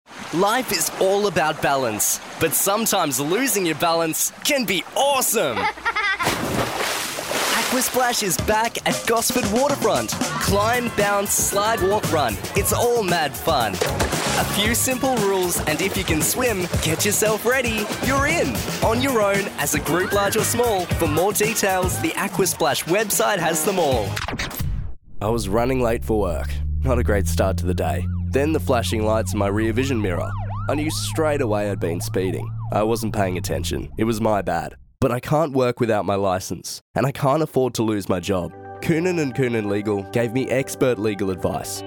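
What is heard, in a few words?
Australian English, Commercial; GoLocalise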